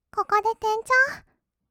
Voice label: 「ここで転調」, kawaii voice; 1200 kawaii voice!!; 色々なワンショット

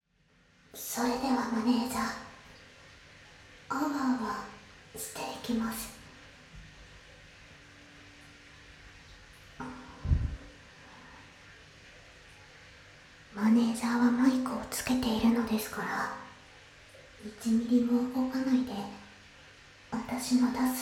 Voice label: 現地のお風呂であわあわASMR; アノ娘と温泉旅行編

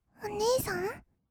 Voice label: お姉さん, kawaii voice; ASMR; 二人称; 1200 kawaii voice!!

